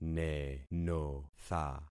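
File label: "nenotha", concatenated syllables; Affixing experiment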